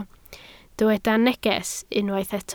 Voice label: "neges", Welsh